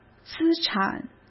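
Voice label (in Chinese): "资产", Mandarin Chinese; hsk; chinese-flashcards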